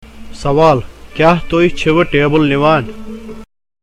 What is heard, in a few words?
Spoken Kashmiri, Question: Are you taking away the table?